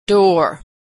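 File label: "door", American English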